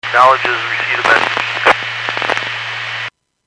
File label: Skywarn 146.67; Stevens Point